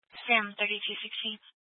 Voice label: Police Dispatch, scanner